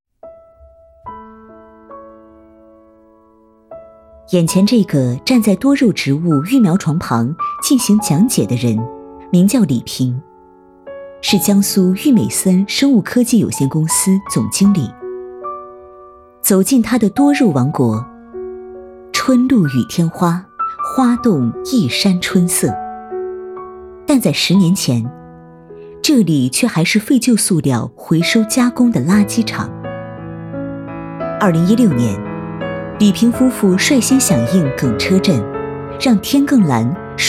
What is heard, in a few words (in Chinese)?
人物讲述 有感情